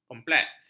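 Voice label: "kompleks", Malay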